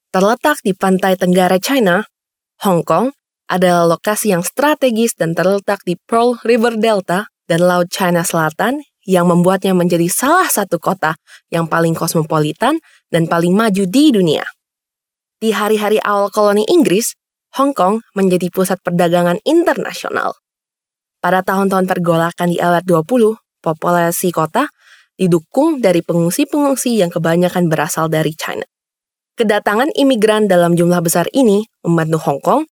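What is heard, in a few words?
INDO female corporate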